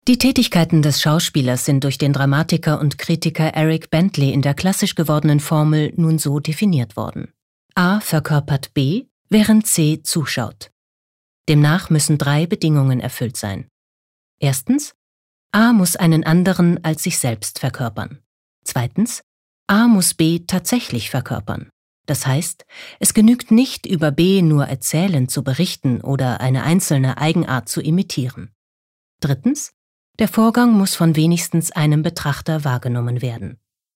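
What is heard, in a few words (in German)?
Kommentar, Neutral; Schauspieler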